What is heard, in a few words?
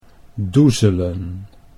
"doezelen", Dutch